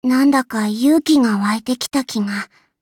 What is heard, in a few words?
levelup ja; cookie run